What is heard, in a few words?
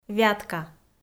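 "Вятка", Komi, female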